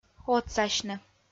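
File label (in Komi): "отсасьны", Komi, female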